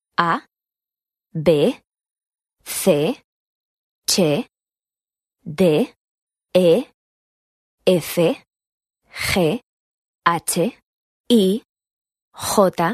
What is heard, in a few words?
Spanish alphabet